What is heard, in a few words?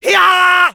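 Medic battlecry French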